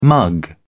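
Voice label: "mug", British English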